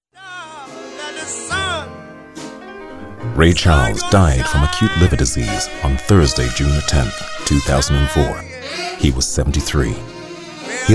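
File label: narration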